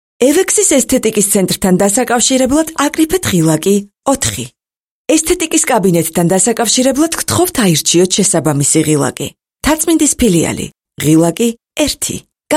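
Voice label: Georgian, female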